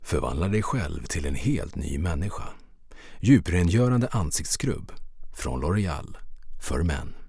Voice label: Voice Over Swedish Commercial; L’Oréal